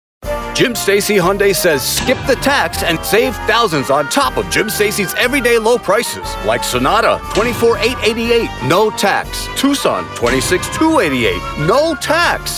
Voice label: Automotive Voice Over; Jim Stacy Hyundai